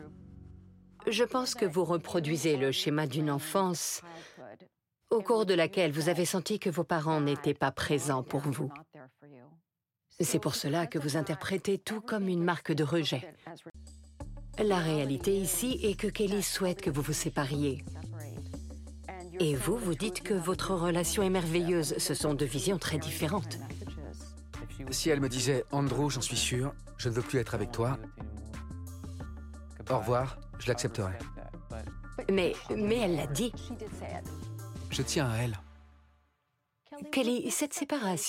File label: voiceover; empire du bling